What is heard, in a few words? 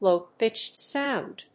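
"low-pitched sound", English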